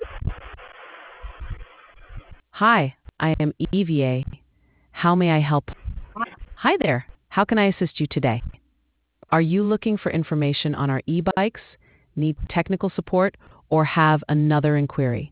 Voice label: conversation